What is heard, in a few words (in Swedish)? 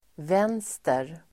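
"vänster", Swedish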